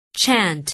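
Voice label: "chant", English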